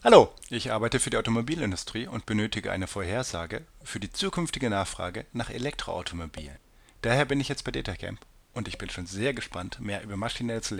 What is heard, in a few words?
German automotive forecast